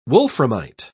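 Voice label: "wolframite", British English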